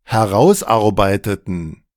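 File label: "herausarbeiteten", German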